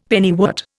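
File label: "pennywort", Indian English; Dictionary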